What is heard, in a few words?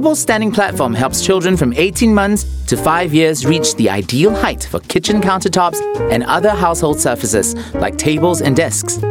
Asian English Toddler Tower